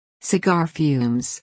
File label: "cigar fumes", US